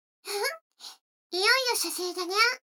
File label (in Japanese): 女の子 台詞